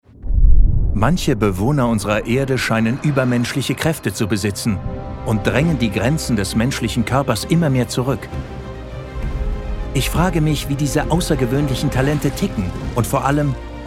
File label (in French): voices docu allemand; superhelden